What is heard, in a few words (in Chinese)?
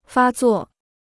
"发作", Chinese